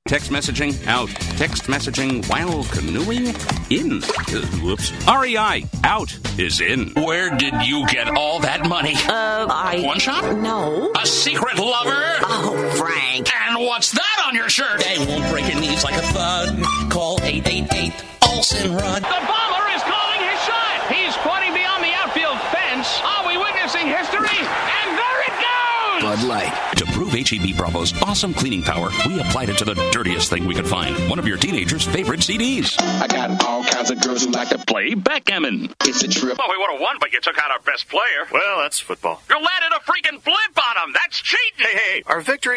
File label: men commercial; A character chameleon who when he tells a story, it stays told!